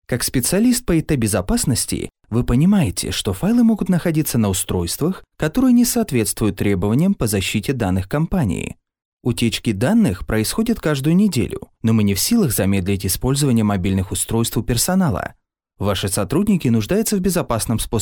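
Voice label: Corporate Russian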